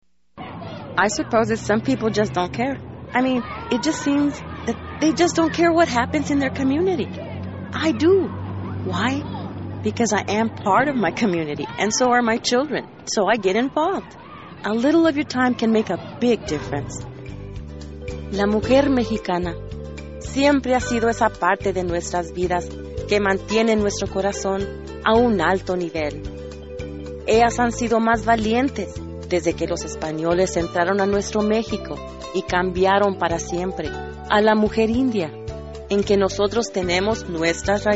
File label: voiceover female